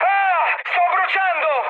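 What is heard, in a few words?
Italian on fire mood med, speech; War Thunder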